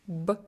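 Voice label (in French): son b, Russian; phonetique et graphie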